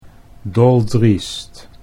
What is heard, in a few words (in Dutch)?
"doldriest", Dutch